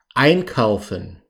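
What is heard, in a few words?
"einkaufen", German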